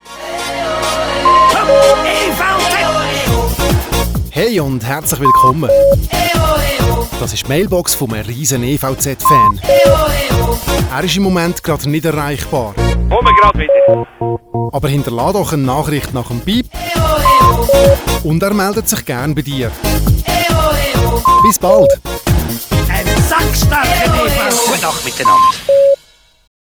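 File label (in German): Neutral männlich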